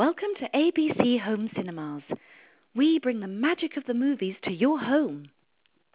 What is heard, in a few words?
Prof Warm